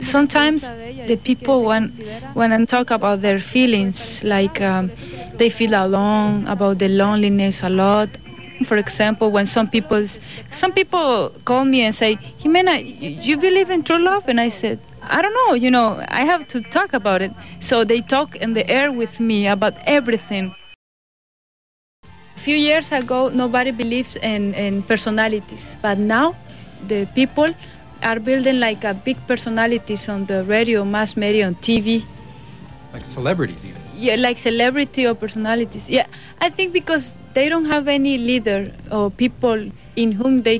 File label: Hispanic Nicaraguan, Hispanic Non-Native Speakers, dialect